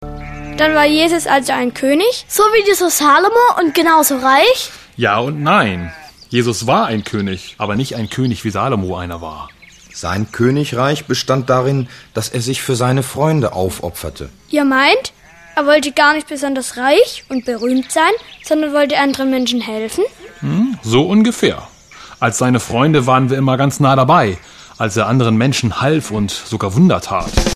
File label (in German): sprechszene